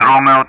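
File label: Speech Sound Russian; DCS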